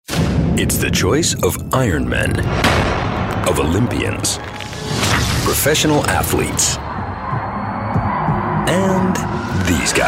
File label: us com movietrailer